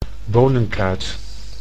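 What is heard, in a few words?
"bonenkruid", Dutch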